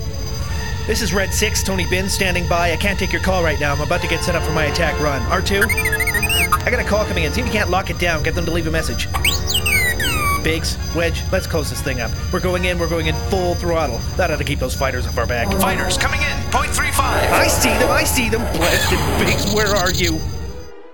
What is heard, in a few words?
voice message